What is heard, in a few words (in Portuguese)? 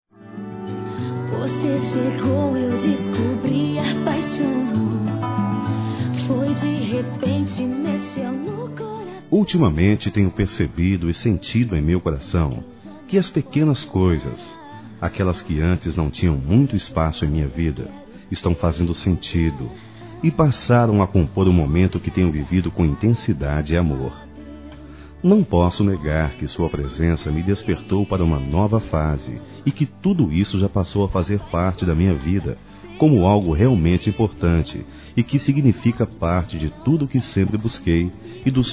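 romantico, Telemensagens, Românticas masculino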